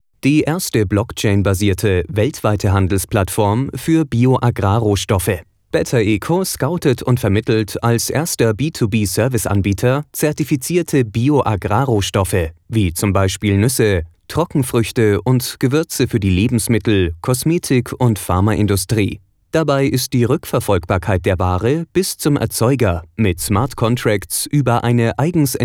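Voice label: German, male, corporate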